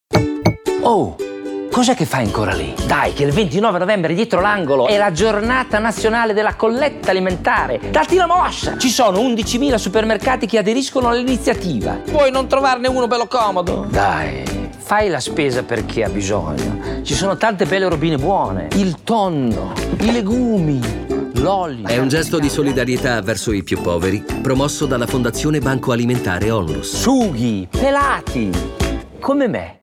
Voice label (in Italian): spot audio, ita; Banco Alimentare; Parrocchia S. Alessandro – Gallarate